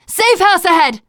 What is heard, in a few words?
safe spot ahead, teen girl; player; survivor; voice